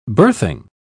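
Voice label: "birthing", British English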